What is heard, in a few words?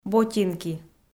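"ботинки", Komi, female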